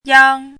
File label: "yang1", Chinese